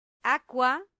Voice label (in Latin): "aqua", latin; santillana